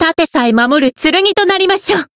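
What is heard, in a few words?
variable chant, Japanese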